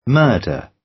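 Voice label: "murder", British; Crime and punishment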